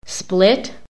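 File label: "split", American English; PHONETIC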